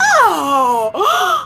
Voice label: "ooh ahh" female

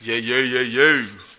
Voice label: "yeah yeah yeah yeah", voice

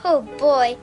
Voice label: "oh boy", girl; ooh ahh

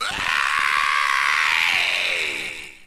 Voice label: "hey" male long; ooh ahh

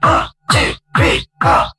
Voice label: 1 2 3 4 MUFFLED; numbers and letters

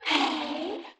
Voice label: "hey" sexy; Voice; ooh ahh

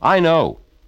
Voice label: i know announcer

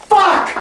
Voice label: "fuck", tourettes; Voice; expletives

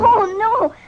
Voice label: "oh no" kid; ooh ahh

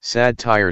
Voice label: robot dude; Voice drops

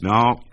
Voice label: "no", ambivalent guy; Voice; expletives

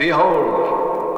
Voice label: "behold", voice

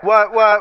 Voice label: "what what", Voice; ooh ahh

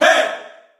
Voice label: hey, group of men

